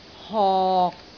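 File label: "hok6", Cantonese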